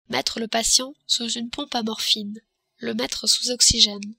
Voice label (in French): pompe a morphine, french